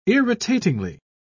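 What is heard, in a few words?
"irritatingly", British English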